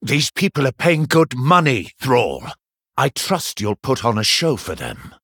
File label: Male Human